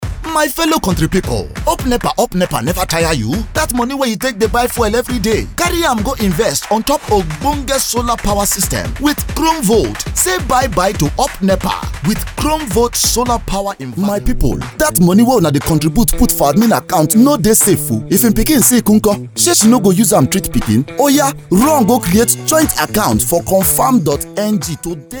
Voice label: male nigerian nigerian pidgin commercial compilation